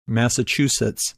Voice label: "Massachusetts", English pronunciation

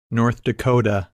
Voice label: "North Dakota", English Pronunciation

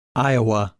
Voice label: "Iowa", English pronunciation

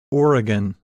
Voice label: "Oregon", English Pronunciation

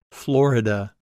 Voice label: "Florida", English pronunciation

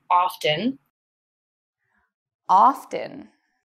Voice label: Often pronunciation

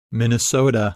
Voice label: "Minnesota", English Pronunciation

